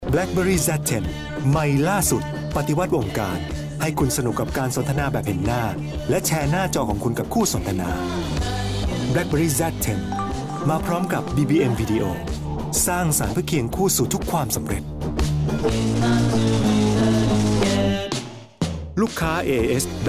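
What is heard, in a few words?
Thai, male, commercial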